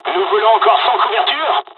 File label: dialog, dialogs french; War Thunder; midway